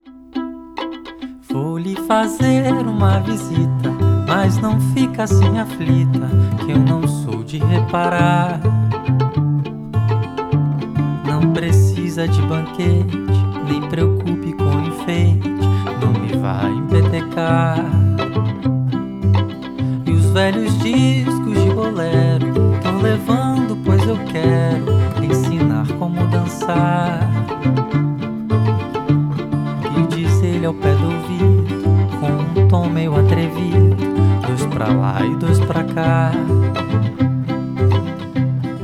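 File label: Vocal, uncompressed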